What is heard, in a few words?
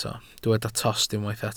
"tost", Welsh